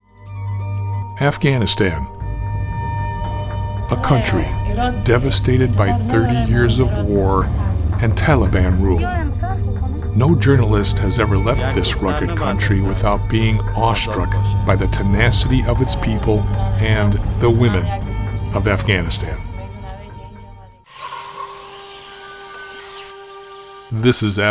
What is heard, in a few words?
Narration